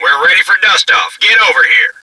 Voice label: pilot radio; cs venice